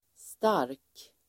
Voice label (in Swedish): "stark", Swedish